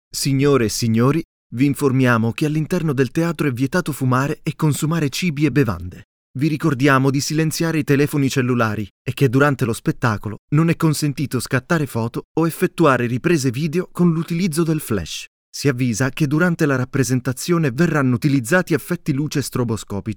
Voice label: Italian others